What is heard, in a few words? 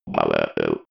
robot voice power low